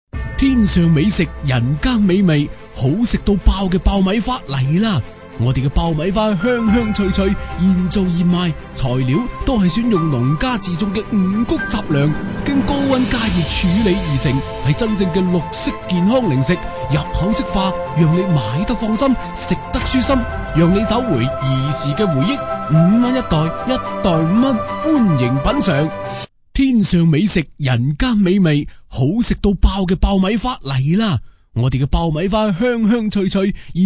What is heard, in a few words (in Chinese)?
粤语 促销